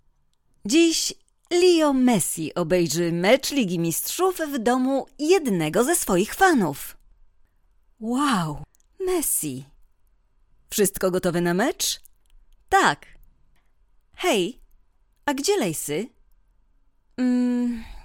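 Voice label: Polish, Commercial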